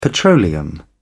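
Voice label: "petroleum", English, British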